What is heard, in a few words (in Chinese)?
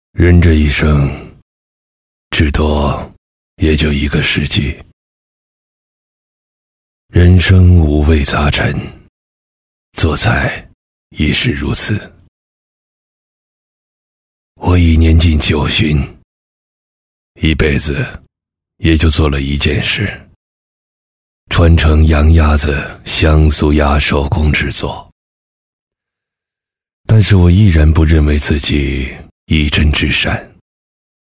男 自白 磁性; 岁月的味道; 人这一生